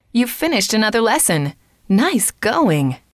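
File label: you finished another lesson nice going, female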